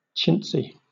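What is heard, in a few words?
"chintzy", British English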